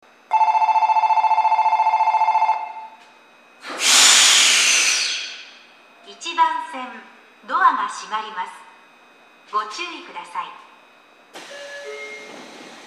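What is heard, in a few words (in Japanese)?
駅放送, 1番線 発車; toyo-katsutadai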